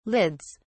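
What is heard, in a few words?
"lids", British English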